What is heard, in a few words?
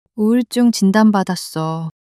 sadness, monologue